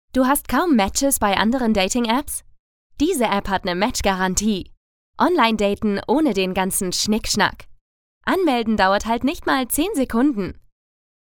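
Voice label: German female; lektoring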